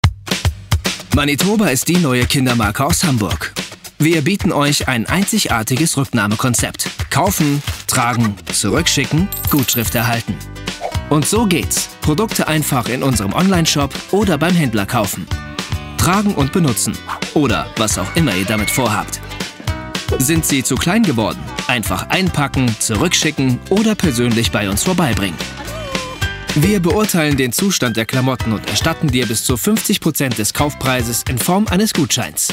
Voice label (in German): Erklärfilm